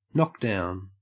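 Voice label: "knockdown", Australian English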